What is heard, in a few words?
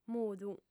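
"mudu", female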